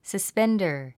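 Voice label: "suspender", English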